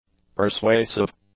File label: "persuasive", english; adjectives